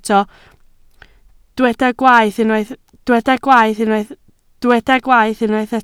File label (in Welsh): "gwaeth" Welsh